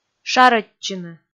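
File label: "шарӧдчыны", Komi, female; kpv audio dict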